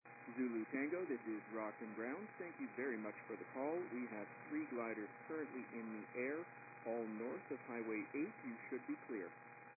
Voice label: 122 725MHz, scanner radio